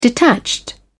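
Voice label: "detached", British English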